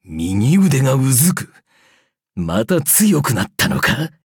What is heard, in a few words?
levelup ja; cookierunwiki